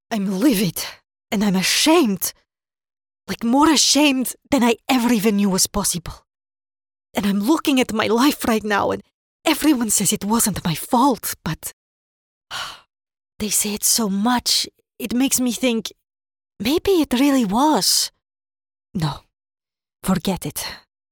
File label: Eastern European accent